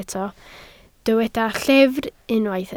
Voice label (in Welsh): "llyfr", Welsh